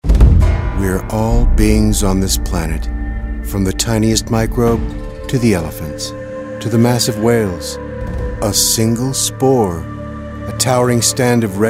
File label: Narrative